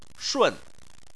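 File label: "shun", Chinese, fourth tone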